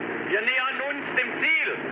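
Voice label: German near waypoint mood high, speech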